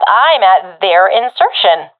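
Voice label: at enemy spawn, professional female; vo; agents; Source Model Packs; Counter Strike Model Pack; CS2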